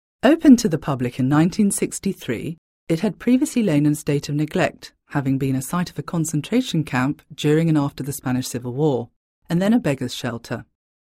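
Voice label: British English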